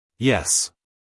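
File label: "yes", American English, male; everyone-can-use-english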